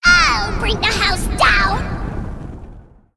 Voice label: Female Fire Spirit